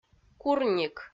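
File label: "курник", Komi, female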